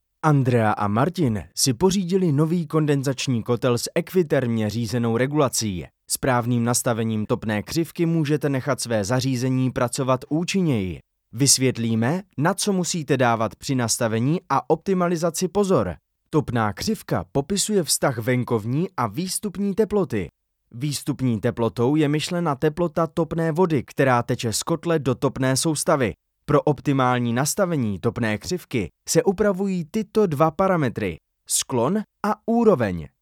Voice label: czech e-learning

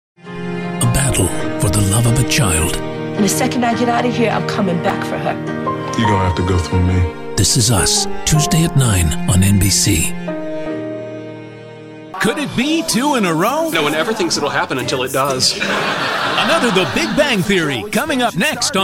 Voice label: english american tv shows

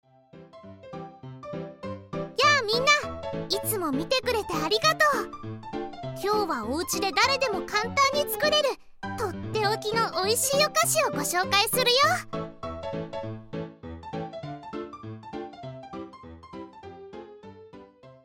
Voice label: japanese animation

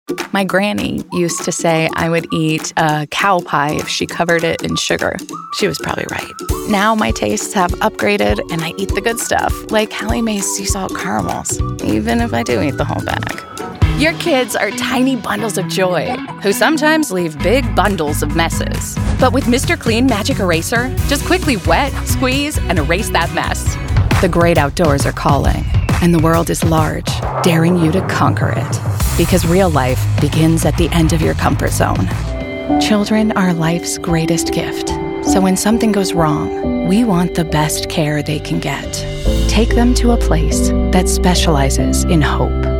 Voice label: english american commercial

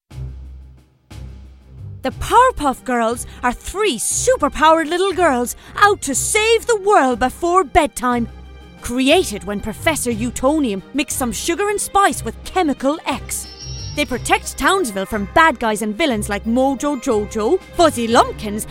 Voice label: english irish tv shows

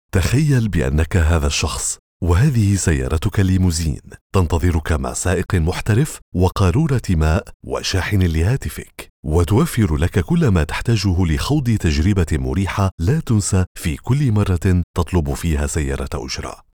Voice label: arabic commercial; arabischer Synchronsprecher

